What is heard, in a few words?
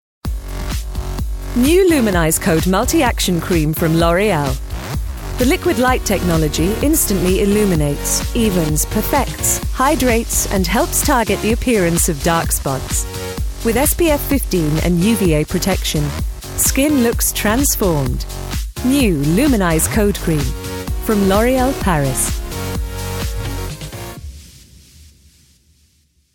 advert; loreal